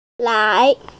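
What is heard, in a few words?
"lai2" Chinese, female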